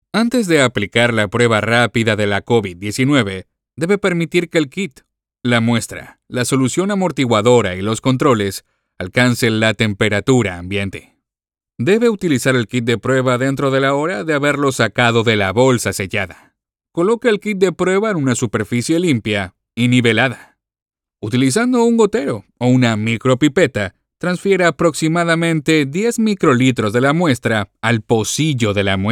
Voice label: Medical Narration Neutral Spanish; Covid 19

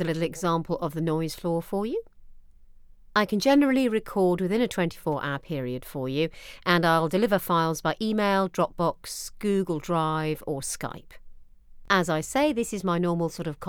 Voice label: studio sample

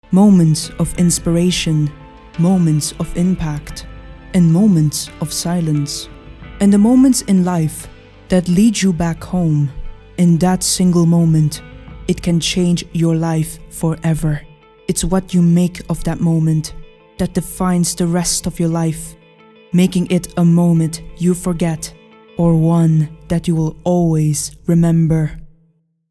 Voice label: trailer with music

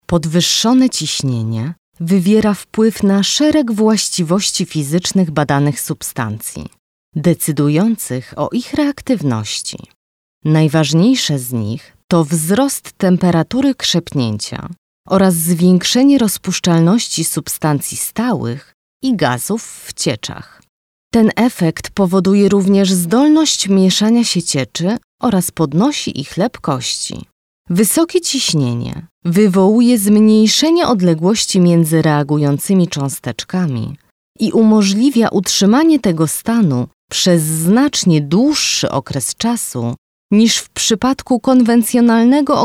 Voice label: e-learning

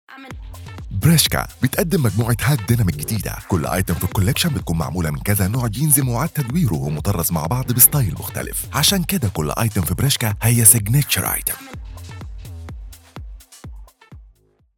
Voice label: commercial radio Egyptian dialect; Bershka

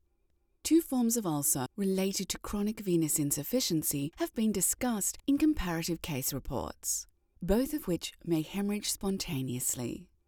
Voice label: british medical narration

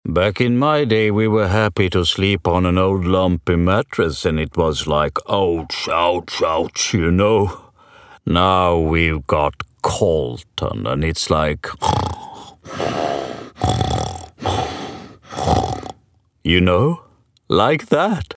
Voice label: English grumpy dog

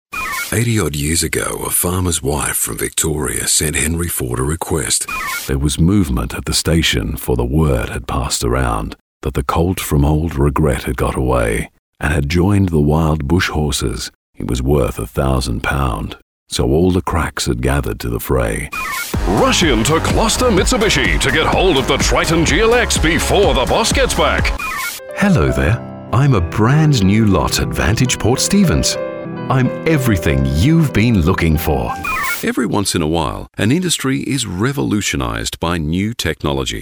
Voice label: Deep Australian VO Reel

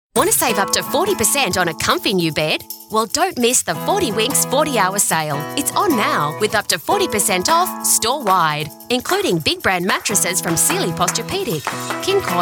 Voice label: hard sell upbeat TVC

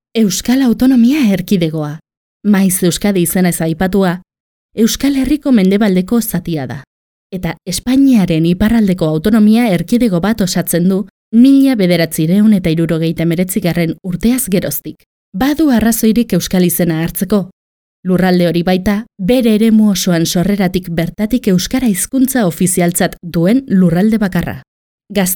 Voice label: female euskera basque

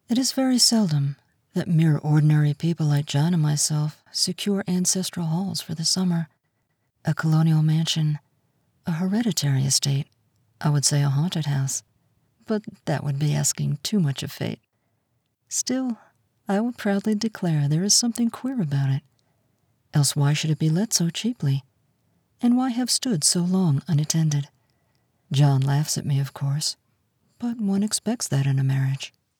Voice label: audiobook

